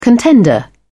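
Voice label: "contender", British English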